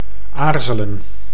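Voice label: "aarzelen", Dutch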